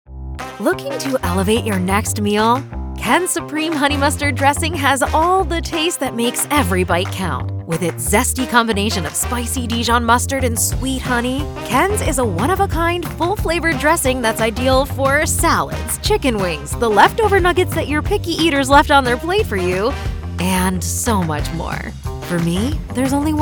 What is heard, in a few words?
english american narration